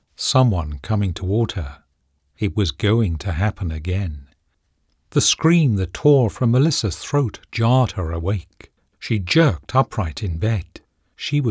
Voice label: Thriller, voiceovers

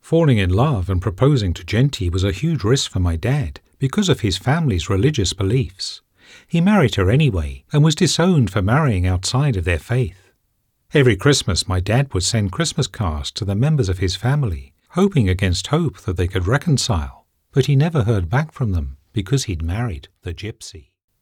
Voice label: Biography, voiceovers

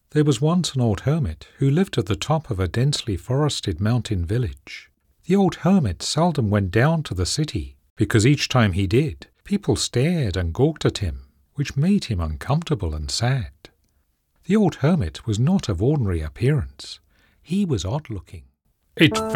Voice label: Childrens, voiceovers

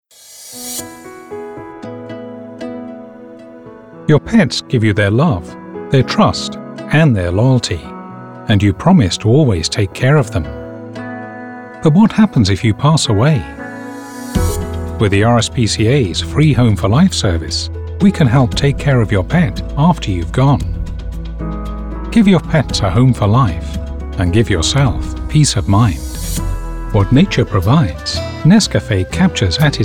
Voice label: Commercial Showreel, voiceovers

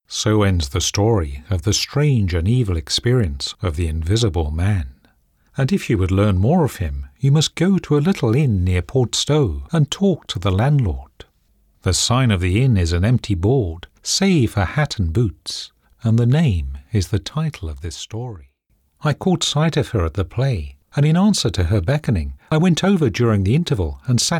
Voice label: Storytelling, voiceovers

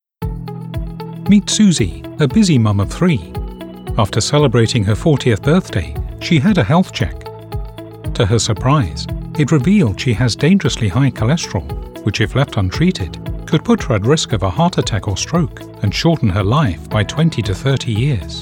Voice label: Medical Explainer, voiceovers

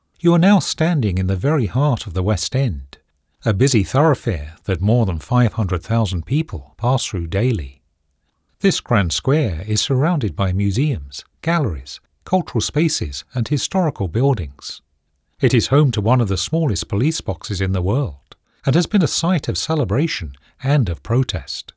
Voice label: Travel, voiceovers

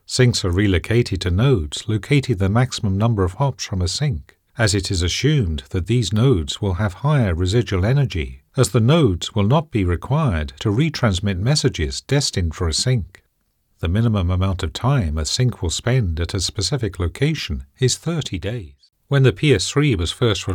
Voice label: Technical, voiceovers

